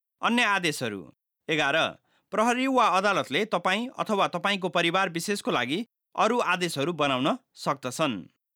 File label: nepalese